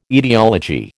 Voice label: "etiology", American